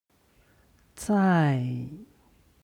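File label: "zai", Chinese; 在; いる; HSK Level One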